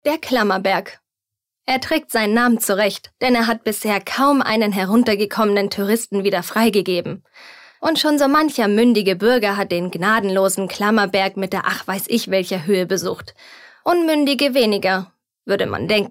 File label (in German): Kommentar, Neutral